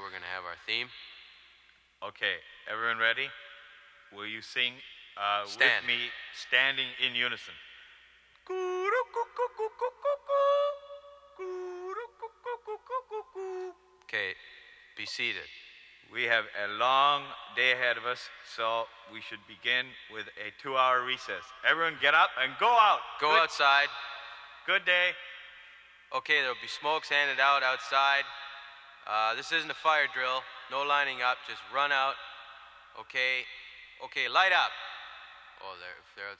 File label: school announcements